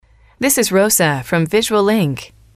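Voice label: "this is X from Y", English, female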